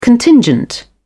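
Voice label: "contingent", British English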